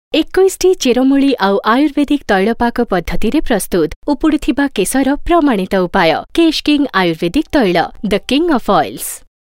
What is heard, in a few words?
Oriya female